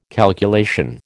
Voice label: "calculation", American